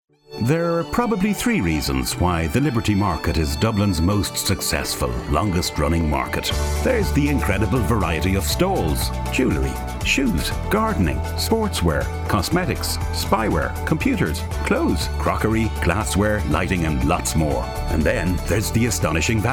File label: male irish voice over sales